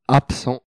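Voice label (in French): "absent", French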